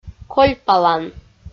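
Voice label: "кольпалан", Komi, female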